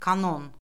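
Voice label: "kanon", Azerbaijani; Orfoqrafiya Lüğəti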